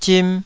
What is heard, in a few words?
"zim2", taiwanese